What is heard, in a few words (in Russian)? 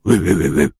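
zvuki golosa peshchernogo cheloveka, caveman voice sounds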